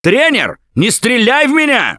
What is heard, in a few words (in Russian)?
friendly fire, left4dead2 russian, Survivor Voice; coach; Gambler